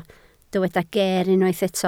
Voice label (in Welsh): "geer" Welsh